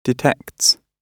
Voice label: "detects", gb